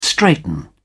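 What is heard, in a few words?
"straighten up", British English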